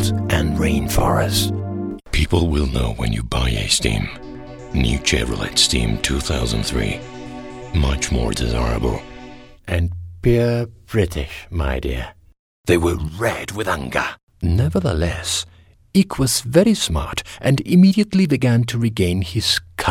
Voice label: english

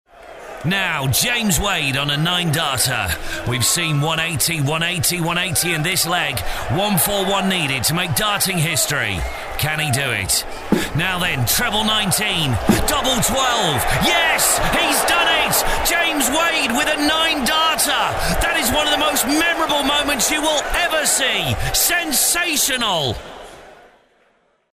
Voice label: darts commentator

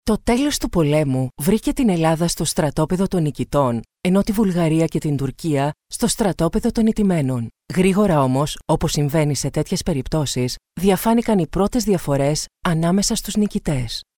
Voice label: greek documentary

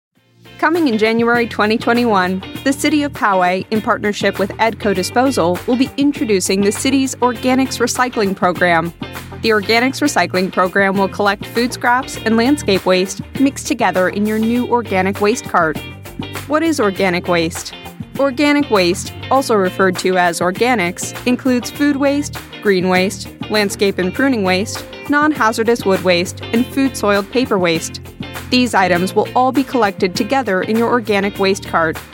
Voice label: elearning

